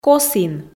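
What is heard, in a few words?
"косін", Komi, female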